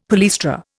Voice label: "palaestra", Indian; Dictionary

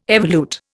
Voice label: "evolute", Indian

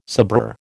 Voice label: "sabreur", American

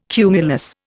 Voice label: "cumulus", Indian

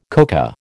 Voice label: "coca", American

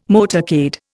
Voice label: "motorcade", Indian

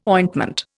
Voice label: "ointment", Indian

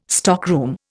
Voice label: "stockroom", Indian

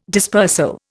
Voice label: "dispersal", Indian

